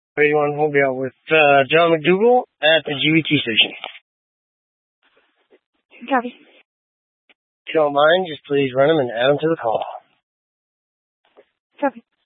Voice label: Police Department Dispatch, scanner